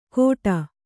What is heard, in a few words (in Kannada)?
"ಘೋಟಾ", Kannada